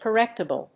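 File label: "correctible", English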